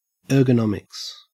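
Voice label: "ergonomics", Australian English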